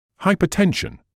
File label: "hypertension", English